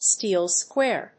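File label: "Steel square", English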